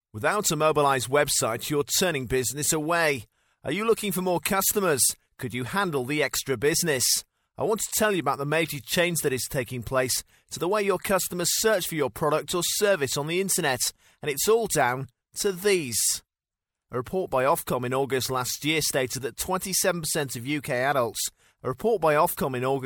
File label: english voiceover example